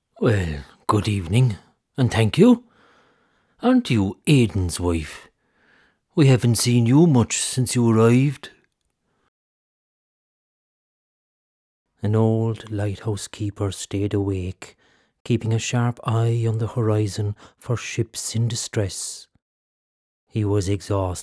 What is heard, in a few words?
Adult narration